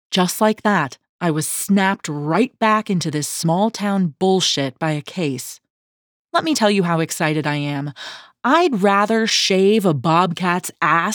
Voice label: feminine voices